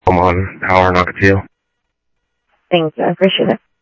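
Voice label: Sheriff East County, scanner audio; South Scottsdale; MCSO - EAST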